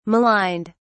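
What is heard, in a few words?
"maligned", English, uk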